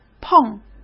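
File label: "碰", Mandarin Chinese; chinese flashcards; hsk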